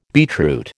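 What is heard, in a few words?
"beetroot", American English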